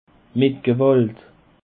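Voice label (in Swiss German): "met gewalt", Alsatian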